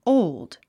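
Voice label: "old", English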